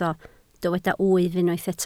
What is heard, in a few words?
"wyf", Welsh